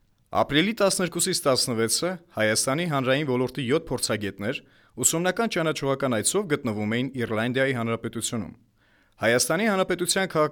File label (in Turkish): Ermenice Erkek; seslendirme